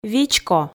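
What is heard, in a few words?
"вичко", Komi, female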